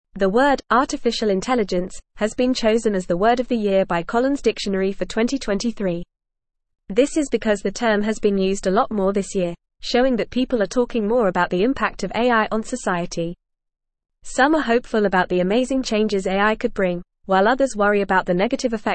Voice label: Upper Intermediate FAST Reading, English; AI Named Collins Dictionary Word of the Year 2023; English Newsroom